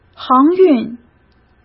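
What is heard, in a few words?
"航运", Mandarin Chinese; chinese-flashcards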